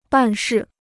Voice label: "办事", Chinese

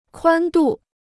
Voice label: "宽度", Chinese

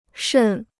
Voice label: "渗", Chinese

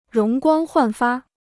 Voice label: "容光焕发", Chinese

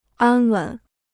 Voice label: "安稳", Chinese

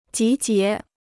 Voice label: "集结", Chinese

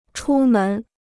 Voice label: "出门", Chinese

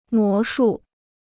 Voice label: "魔术", Chinese

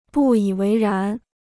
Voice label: "不以为然", Chinese

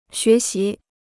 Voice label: "学习", Chinese

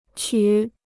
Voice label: "曲", Chinese

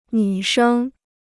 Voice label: "女生", Chinese

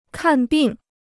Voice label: "看病", Chinese

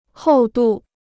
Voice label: "厚度", Chinese

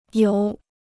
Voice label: "游", Chinese

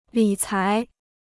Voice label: "理财", Chinese

